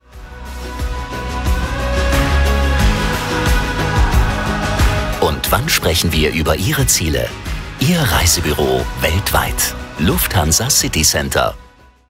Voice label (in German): Lebendig; Fremdtake